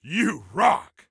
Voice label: Compliment You Rock, Soldier Male; Soldier Male 03

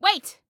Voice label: Team Wait, Female; Female 02

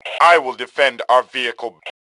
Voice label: Self Defend Vehicle, Male; T2 Male 02

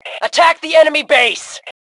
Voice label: Attack Base, Female; T2 Female 04

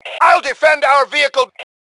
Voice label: Self Defend Vehicle, Male; T2 Male 05

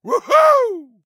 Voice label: "Woohoo", Soldier Male; Soldier Male 03

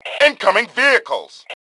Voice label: Warn Vehicle, Male; T2 Male 02

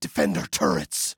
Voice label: Defend Turrets, Male

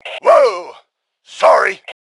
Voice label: Taunt Sarcasm, Male; T2 Male 05

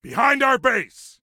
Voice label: Warn Enemy Behind Our Base, Male; T2 Male 05

